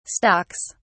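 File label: "stocks", English, British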